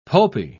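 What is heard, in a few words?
"pulpy", British English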